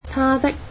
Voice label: "his", Cantonese